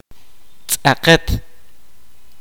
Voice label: kaqchikel